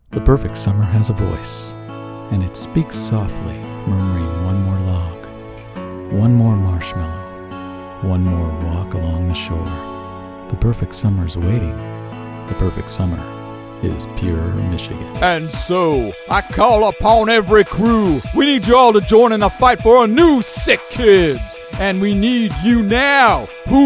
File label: Commercial VO